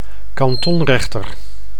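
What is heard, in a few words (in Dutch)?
"kantonrechter", Dutch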